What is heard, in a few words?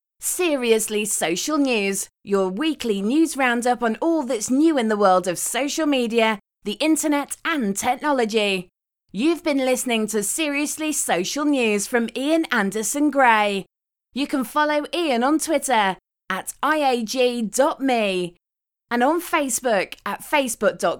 voice over